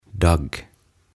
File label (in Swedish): "Dagg" "Kaste"; OTAVA KONSERNI